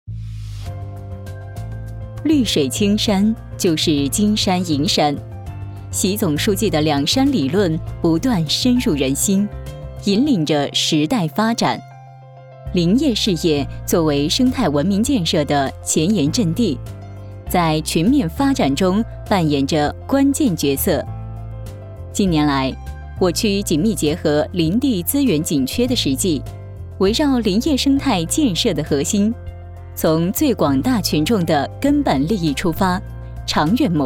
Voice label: 女-磁性; 高标准营造生态景观林

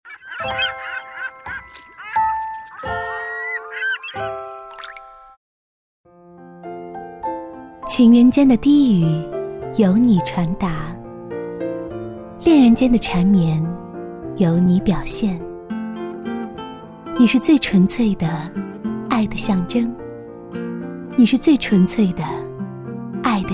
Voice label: 女, 专题, 甜美柔情, 国语女声; 国语女声配音

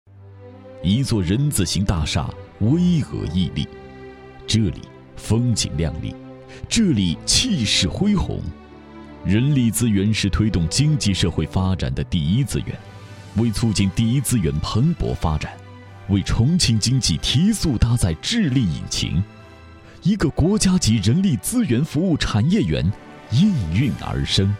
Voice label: 男, 企业专题, 大气舒展

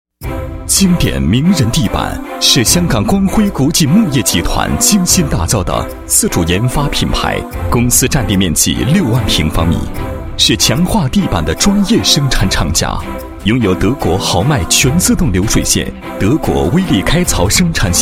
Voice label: 男 产品介绍 地板宣传介绍; 经典名人地板宣传介绍

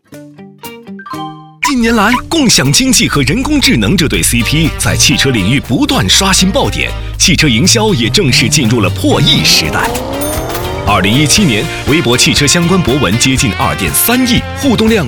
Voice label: 男, 病毒, 汽车媒体营销

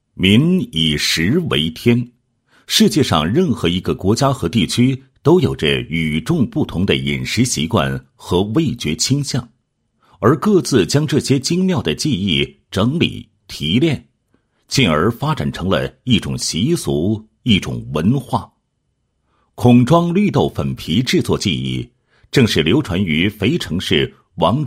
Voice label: 男 产品介绍 解说词; 孔庄绿豆粉皮

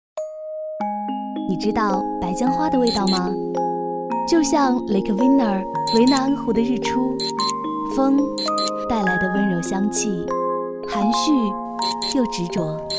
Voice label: 女 化妆品广告; 芬研白姜花系列

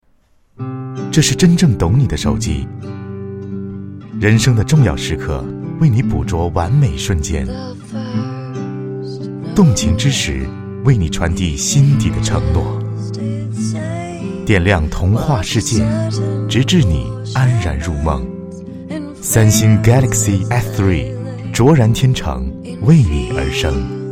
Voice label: 男 广告 温情, 国语男声配音 温情人物专题; 三星galaxy s3

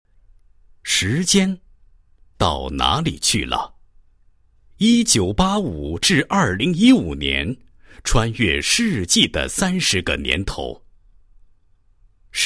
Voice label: 男 聚会 同学会散文, 配音案例; 东山中学

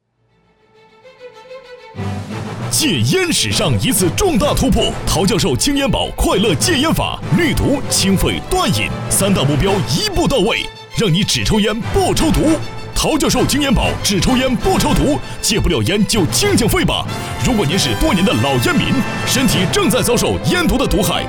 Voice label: 男 电购 戒烟广告配音

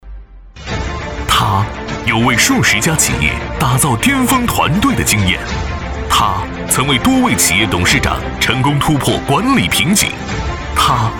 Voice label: 男 颁奖开场介绍 管理团队

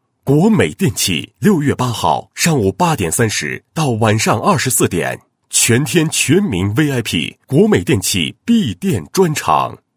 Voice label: 男 促销广告; 国美促销宣传

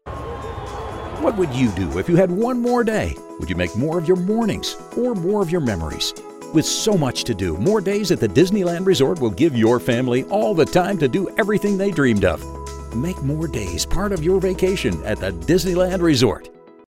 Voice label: 外籍英男, 宣传片; 迪士尼宣传片

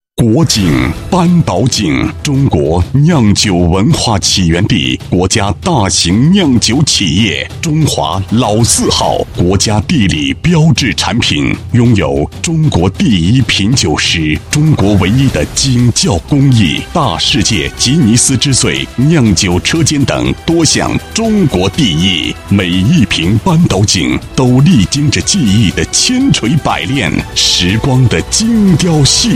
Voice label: 男 品牌广告 酒, 国语男声配音; 扳倒井

